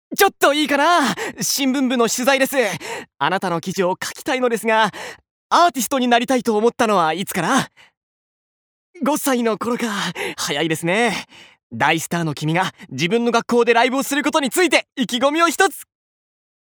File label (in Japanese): アニメ